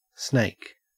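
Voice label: "snake", Australian English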